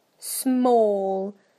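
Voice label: "small", British English; PictureDictionary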